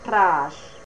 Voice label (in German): "streich", fraenkisch